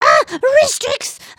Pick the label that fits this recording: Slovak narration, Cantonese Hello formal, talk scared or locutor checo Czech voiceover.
talk scared